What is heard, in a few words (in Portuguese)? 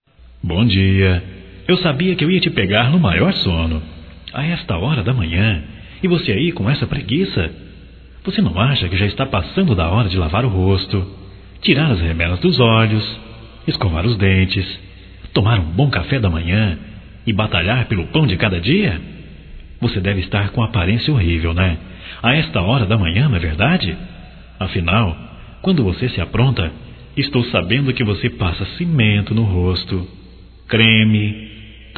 Bom Dia Gozação Masculino, Só Voz; Telemensagens; Telemensagens 2017